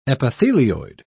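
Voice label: "epithelioid", British English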